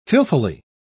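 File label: "filthily", British English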